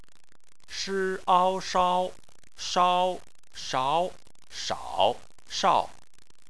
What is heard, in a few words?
"shao", Chinese